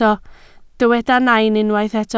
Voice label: "nain", Welsh

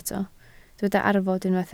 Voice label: "arfod", Welsh

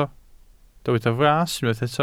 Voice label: "fras", Welsh

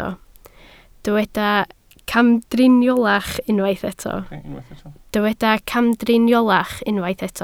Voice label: "camdriniolach", Welsh

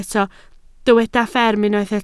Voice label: "fferm", Welsh

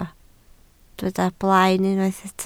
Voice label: "blaen", Welsh

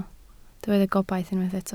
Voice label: "gobaith", welsh

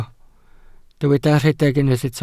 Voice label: "rhedeg", Welsh

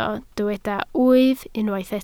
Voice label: "wyf", Welsh

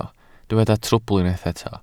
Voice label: "trwbl", Welsh

